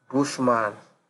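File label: "bushman", Nigerian Pidgin, male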